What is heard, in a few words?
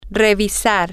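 to Revise Look Over, Spanish, female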